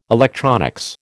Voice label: "electronics", American

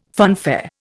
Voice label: "funfair", Indian

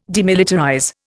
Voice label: "demilitarize", Indian

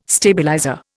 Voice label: "stabilizer", Indian

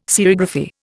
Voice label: "serigraphy", Indian

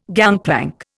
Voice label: "gangplank", Indian

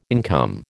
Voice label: "income", American

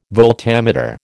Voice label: "voltameter", American

